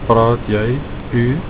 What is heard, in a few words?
do you speak, Afrikaans